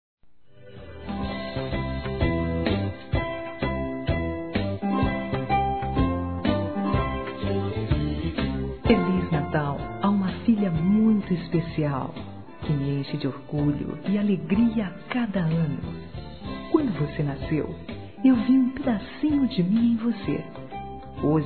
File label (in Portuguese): NATAL MÃE P FILHA; Telemensagens; Natal e Ano novo; fem